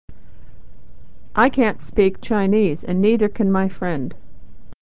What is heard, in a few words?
can, pronunciation